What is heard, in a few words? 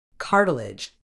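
"cartilage", British English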